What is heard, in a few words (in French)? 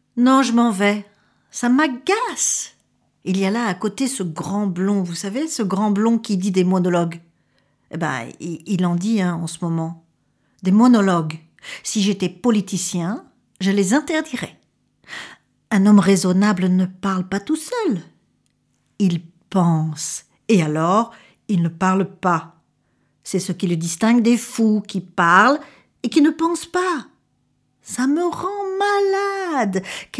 Voix theatre